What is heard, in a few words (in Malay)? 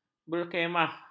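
"berkhemah", Malay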